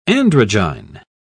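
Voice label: "androgyne", English, British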